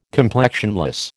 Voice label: "complexionless", American

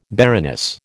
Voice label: "baroness", American

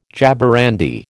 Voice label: "jaborandi", American

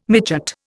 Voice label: "midgut", Indian

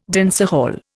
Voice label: "dancehall", Indian

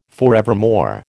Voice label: "forevermore", American

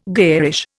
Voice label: "garish", Indian

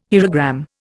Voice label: "hierogram", Indian

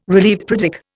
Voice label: "relieved", Indian; Dictionary

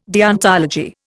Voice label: "deontology", Indian

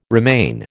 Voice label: "remain", American

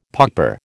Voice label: "pauper", American

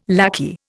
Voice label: "lackey", Indian

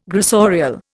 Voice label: "gressorial", Indian

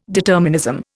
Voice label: "determinism", Indian

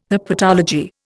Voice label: "herpetology", Indian

